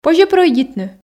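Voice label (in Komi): позьӧ прӧйдитны, Komi, female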